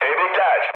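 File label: Turkish player killed enemy, mood med, speech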